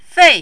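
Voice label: "fei", fourth tone, Mandarin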